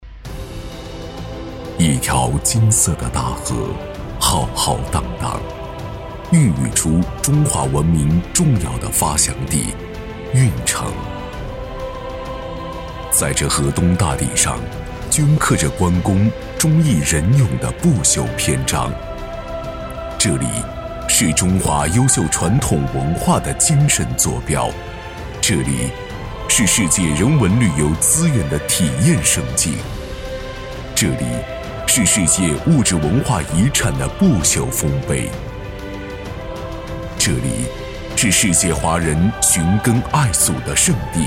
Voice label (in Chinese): 男 宣传片浑厚大气; 关公故里景区